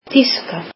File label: "German", Icelandic